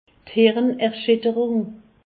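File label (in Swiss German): "hirnerschitterung", Alsace, Haut Rhin; medecine; commotion cérébrale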